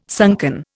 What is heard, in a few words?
"sunken", Indian